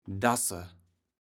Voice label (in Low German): "Daße", kaevels platt